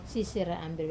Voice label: "sisera amber", Westerner, wamesa

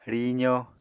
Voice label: "rizo", Apatani

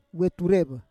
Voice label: "we turev", Roon

